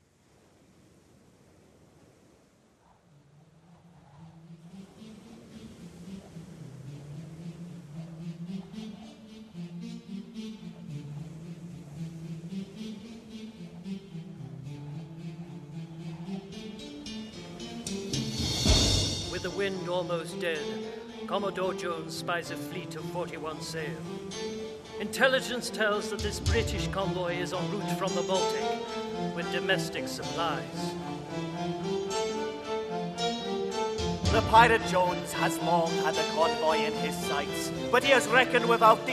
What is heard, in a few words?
Battle Orch Chorus SFX Narration